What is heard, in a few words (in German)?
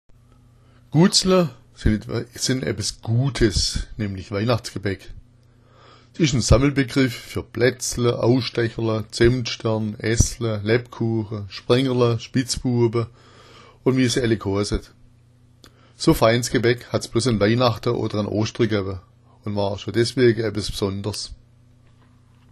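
"guatsla", schwäbisch; Guatsla sind etwas Gutes, nämlich Weihnachtsgebäck.; Es ist ein Sammelbegriff für: Plätzla, Ausstecherla, Zemtstern, Es-la, Lebkuacha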